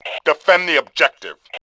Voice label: objective, Male; voice; Tribes2